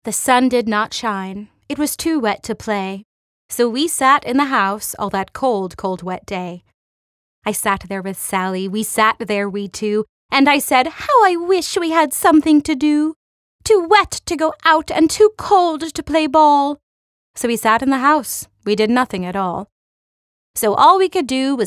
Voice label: audiobook